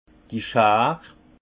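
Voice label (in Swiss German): "schar", Haut Rhin; vin; ciseaux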